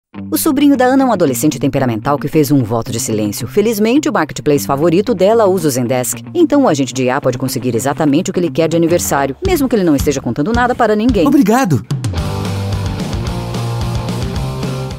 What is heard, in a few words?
Advertisement; Zendesk